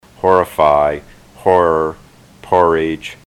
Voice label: horrify horror